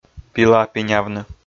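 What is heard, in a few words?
"пила пинявны", Komi, male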